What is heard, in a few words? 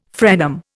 "frenum", Indian